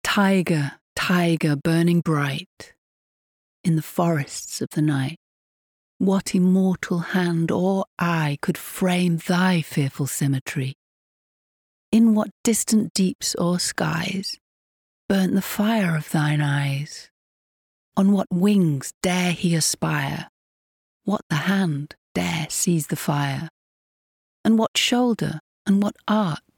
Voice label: VO Reel Poem; Tyger Poem